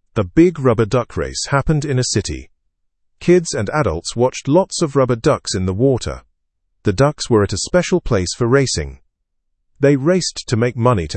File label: learn english through news beginner fast; rubber duck race raises charity money